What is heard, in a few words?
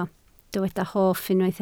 "hoff", Welsh